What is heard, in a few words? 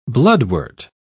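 "bloodwort", British English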